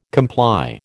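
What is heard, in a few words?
"comply", American English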